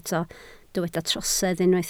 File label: "trosedd", Welsh